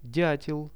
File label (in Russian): "diatel", Russian; дятел; birds; Какая это птица?